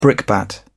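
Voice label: "brickbat", English, British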